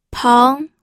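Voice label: "peng", Mandarin Chinese, second tone; pinyin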